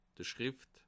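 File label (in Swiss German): "schrift", Bas Rhin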